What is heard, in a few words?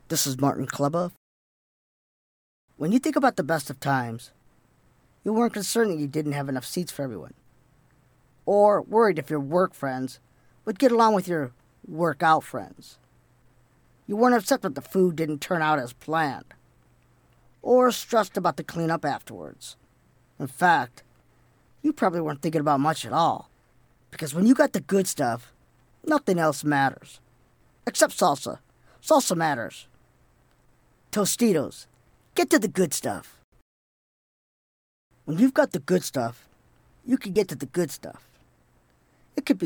commercial; Innovative LA